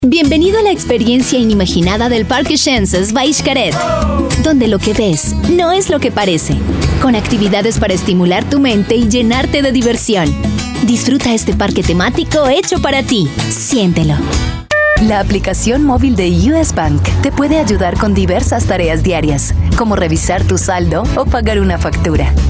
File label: spanish voice over female narrator